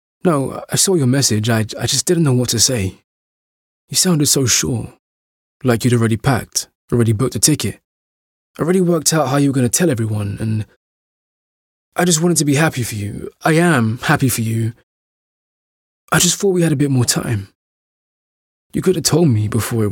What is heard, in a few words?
natural voice acting